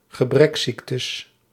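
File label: "gebreksziektes", Dutch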